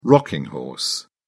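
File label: "rocking horse", British English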